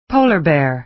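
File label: "polar bear", American English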